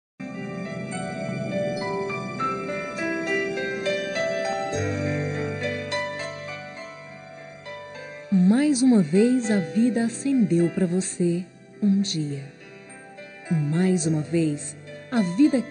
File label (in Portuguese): avo fem, Aniversário de Avô – Voz Feminina; Telemensagens para todas as ocasiões